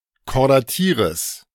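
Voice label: "Chordatieres", German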